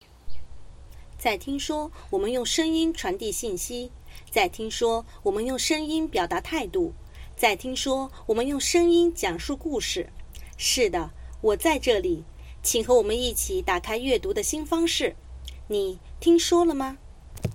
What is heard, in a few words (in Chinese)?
讲述类